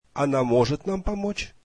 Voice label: russian can she help us